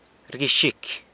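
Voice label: "rguixicy", San Lucas Quiavini Zapotec; nods off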